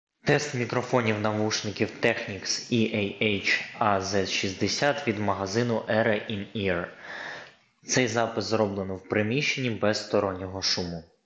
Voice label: Technics EAH AZ60 приміщення

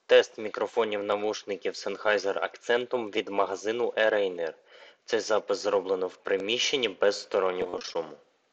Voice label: Sennheiser Accentum в приміщенні

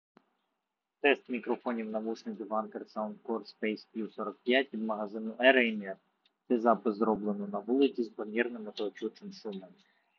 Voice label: Anker SoundCore Space Q45 на вулиці

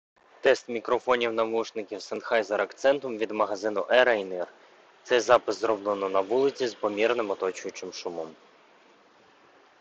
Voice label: Sennheiser Accentum на вулиці

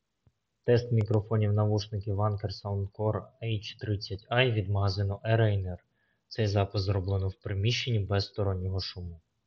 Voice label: Anker SoundCore H30i в приміщенні

